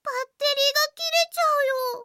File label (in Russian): zvuki s golosom iaponskoi anime devushki